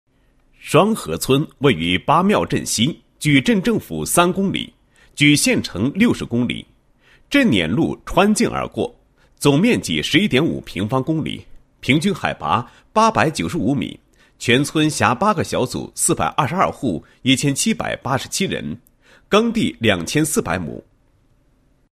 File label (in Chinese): 男, 政府报告; 贫困户状况 调查情况介绍